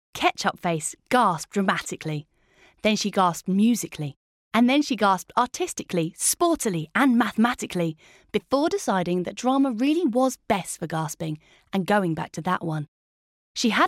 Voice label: story